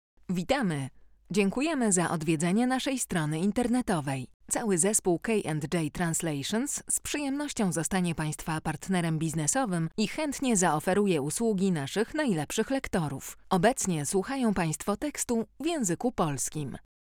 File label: polish female